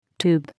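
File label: "tube", English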